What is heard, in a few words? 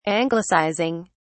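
"anglicizing", British English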